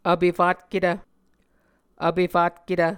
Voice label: "vpefatketv", Muscogee

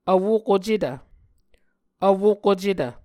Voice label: "vwokocetv", Muscogee

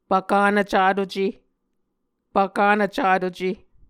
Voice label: "pvkanv catuce", Muscogee; Mvskoke Words with Voicing Consonants; A Dictionary of Creek/Muskogee; SIL International

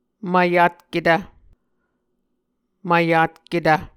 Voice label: "mvyatketv", Muscogee

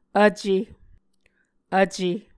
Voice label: "vce", Muscogee

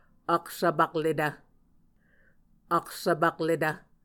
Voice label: "aksvpakletv", Muscogee

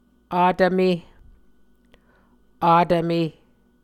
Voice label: "atvme", Muscogee

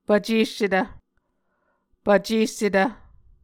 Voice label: "pvcessetv", Muscogee

